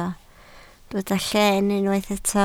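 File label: "llen" Welsh, spoken word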